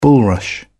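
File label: "bulrush", British English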